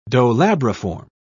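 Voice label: "dolabriform", British English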